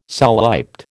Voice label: "soliped", American

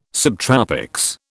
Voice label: "subtropics", British

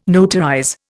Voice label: "notarize", Indian

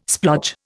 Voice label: "splodge", Indian

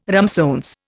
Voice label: "ramsons", Indian

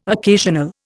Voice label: "occasional", Indian

